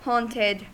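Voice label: "haunted", US English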